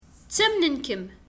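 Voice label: Kabyle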